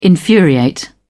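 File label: "infuriate", British English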